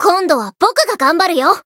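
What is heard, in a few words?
Voice variable chant, Japanese; ciel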